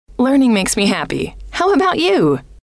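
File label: learning makes me happy how about you, female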